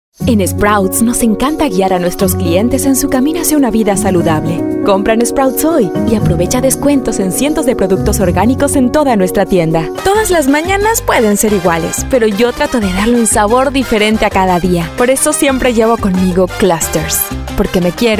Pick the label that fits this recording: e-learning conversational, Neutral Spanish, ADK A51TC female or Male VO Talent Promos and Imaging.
Neutral Spanish